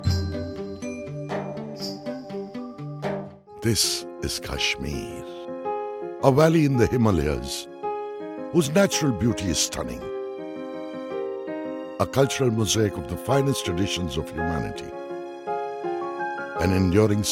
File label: docu eng